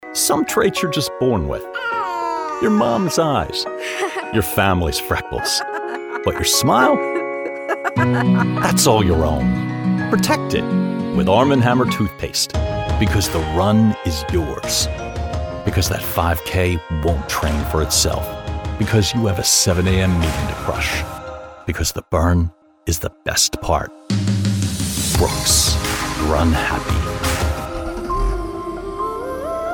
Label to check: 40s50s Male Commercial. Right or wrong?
Right